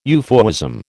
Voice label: "euphuism", American